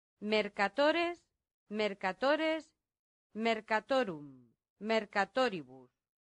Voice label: "mercatores", latin; santillana

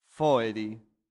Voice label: "foedi", Latin; santillana

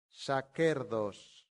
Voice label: "sacerdos", latin; santillana

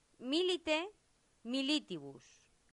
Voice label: "milite militibus", latin; santillana

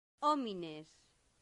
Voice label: "homines", Latin; santillana

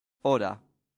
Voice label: "ora", latin; santillana

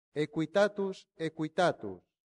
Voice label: "equitatus us", Latin; santillana

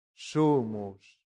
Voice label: "sumus", Latin; santillana

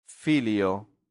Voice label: "filio", latin; santillana

